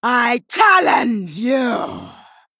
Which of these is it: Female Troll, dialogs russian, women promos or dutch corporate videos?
Female Troll